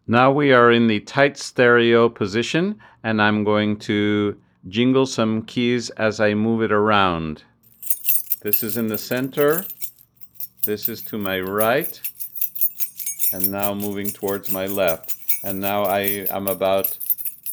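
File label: AKG Lyra tight stereo noise reduction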